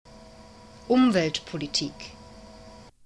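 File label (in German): "umweltpolitik", German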